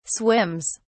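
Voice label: "swims", British English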